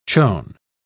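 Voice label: "chon", British English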